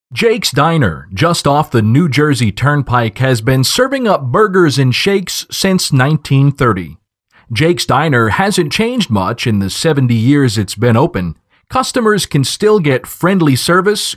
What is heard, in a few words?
Documentary